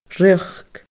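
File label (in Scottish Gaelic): "riochd", Scottish Gaelic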